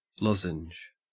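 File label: "lozenge", Australian English; pronunciations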